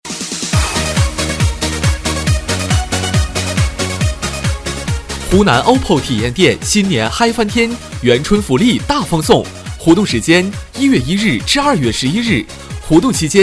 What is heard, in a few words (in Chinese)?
【促销】湖南OPPO手机男 激情活力